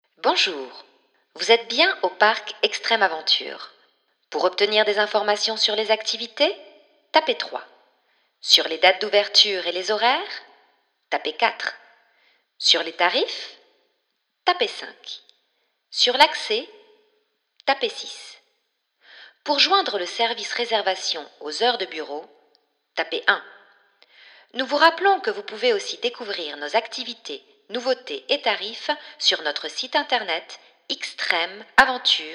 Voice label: female french park comercial

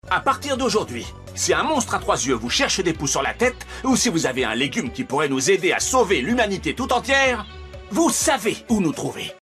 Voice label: male french food comercial; ideasonora

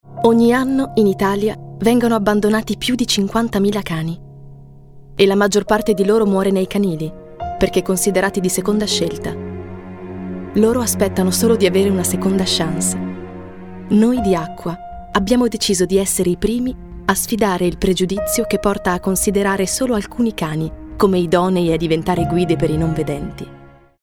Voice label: female italian comercial

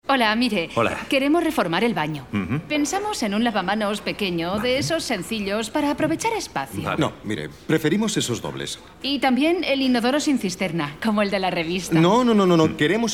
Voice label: female spanish house comercial

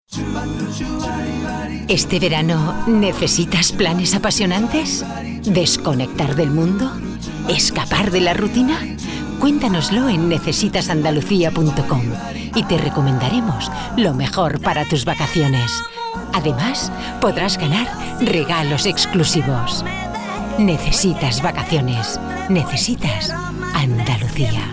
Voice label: female spanish travel comercial; ideasonora

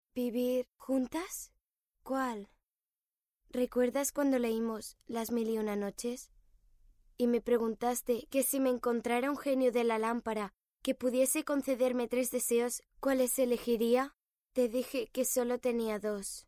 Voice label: female spanish tv; ideasonora

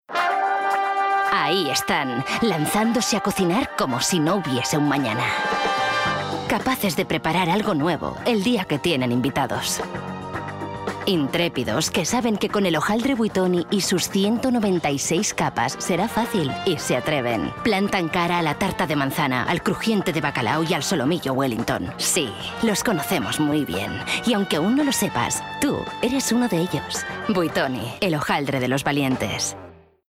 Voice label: female spanish food comercial; ideasonora

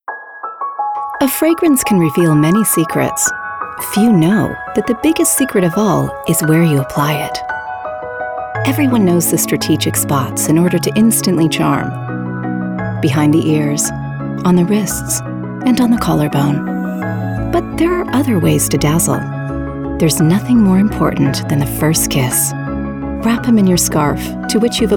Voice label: female american english ab comercial; ideasonora

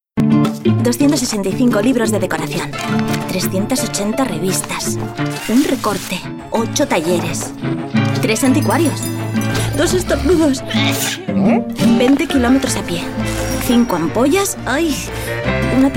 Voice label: female spanish furniture comercial; ideasonora

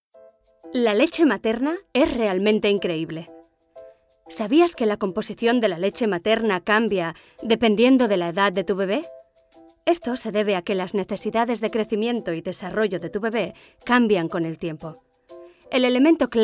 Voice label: female spanish milk comercial

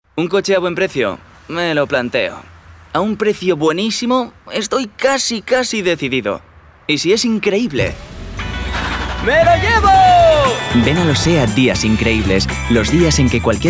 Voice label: male spanish car comercial; ideasonora

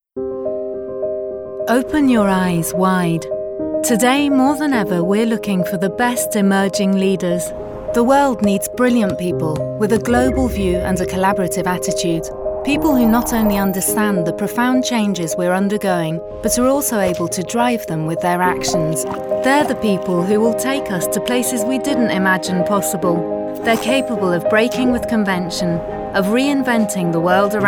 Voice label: female british english bank comercial; ideasonora

